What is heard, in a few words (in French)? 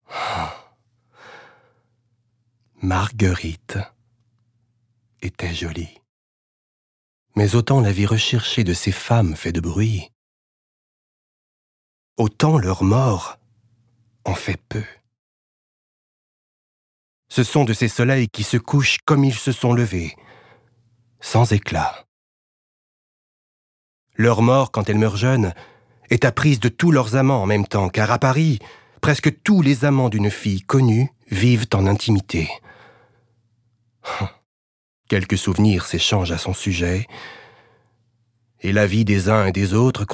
Narration; La Dame aux Camelias